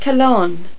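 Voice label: "colonne", French; Virtual Casino: Secret Fantasies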